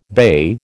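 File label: "bey", American English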